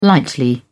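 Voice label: "lightly", English, British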